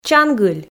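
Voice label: "чангыль", Komi, female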